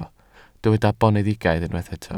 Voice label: "boneddigaidd", Welsh

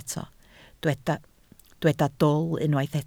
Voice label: "dwl", Welsh

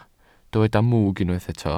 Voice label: "mwg", Welsh

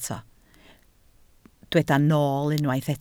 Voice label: "nool" Welsh

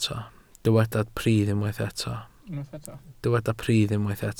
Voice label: "pridd" Welsh

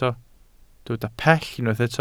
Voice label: "pell", Welsh

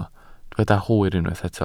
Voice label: "hwyr", Welsh

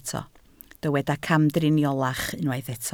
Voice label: "camdriniolach", Welsh

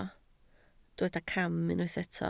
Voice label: "cam", Welsh

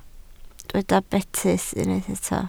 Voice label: "betys", Welsh

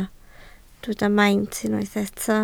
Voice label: "maint", Welsh

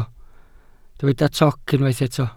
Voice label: "toc", Welsh

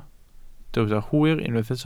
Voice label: "hwyr", Welsh

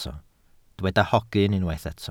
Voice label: "hogyn" Welsh

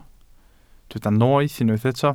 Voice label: "noeth" Welsh

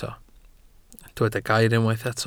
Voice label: "gair", Welsh

